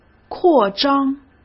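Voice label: "扩张", Mandarin Chinese; hsk; chinese-flashcards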